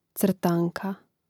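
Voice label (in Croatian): "crtanka", Hrvatski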